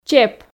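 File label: "чеп", Komi, female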